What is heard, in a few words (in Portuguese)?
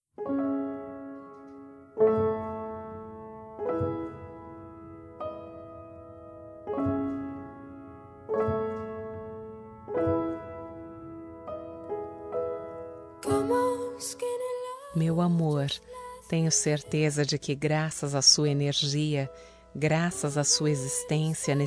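BOA TARDE ROMANTICA, Feminino; Telemensagens; Românticas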